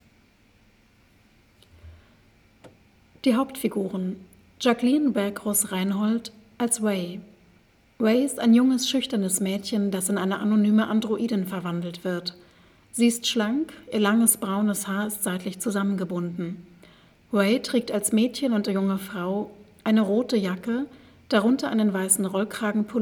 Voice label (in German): Audiodeskription; Hauptfiguren